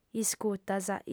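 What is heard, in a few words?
"iscutas", Sardinian, female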